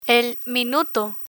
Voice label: "el minuto", Spanish; months of the year; learn spanish

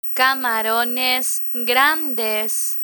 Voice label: "camarones grandes", Spanish; travel menu; learn spanish

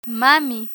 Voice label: "mami", Spanish; learn spanish

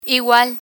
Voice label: "igual", Spanish

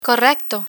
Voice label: "correcto", Spanish; spanish vocabulary; learn spanish

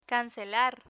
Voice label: "cancelar", Spanish; banking vocabulary

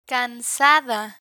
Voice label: "cansada", Spanish; medical medicine vocabulary; learn spanish

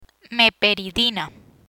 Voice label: "Meperidina", Spanish

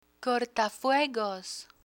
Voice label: "cortafuegos", Spanish; firefighters; learn spanish

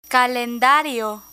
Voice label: "calendario", Spanish; office supplies; learn spanish

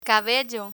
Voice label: "cabello", Spanish; business; learn spanish

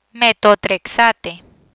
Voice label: "Metotrexate", Spanish

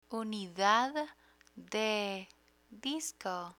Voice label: unidad de disco, Spanish; computer vocabulary

